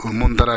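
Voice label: "mondara", Minamiyamagata dialect Japanese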